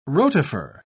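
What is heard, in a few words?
"rotifer", British English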